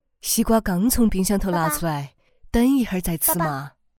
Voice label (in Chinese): 四川方言 女 四川话 妈妈角色; 方言配音